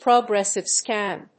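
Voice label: "progressive scan", English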